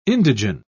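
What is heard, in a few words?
"indigen", British English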